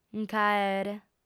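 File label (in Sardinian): "nc'aere", female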